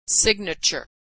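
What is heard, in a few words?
"signature", American English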